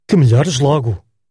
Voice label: get well soon, portuguese; Que melhores logo!